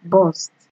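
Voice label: "burst", Nigerian Pidgin, female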